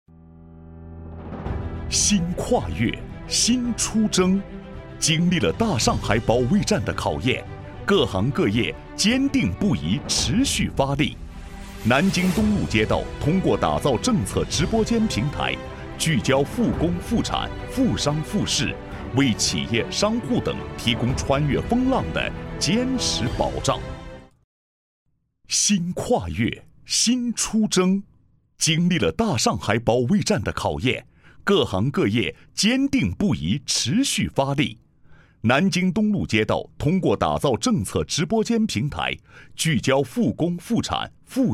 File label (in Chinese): 配音; 南京东路渡船